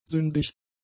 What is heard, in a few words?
"sündig", german; adjectives